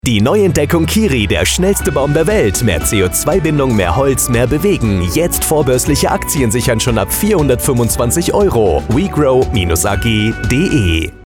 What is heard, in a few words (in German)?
Funkspot; WeGrow